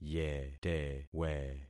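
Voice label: "yetewe", concatenated syllables; Affixing experiment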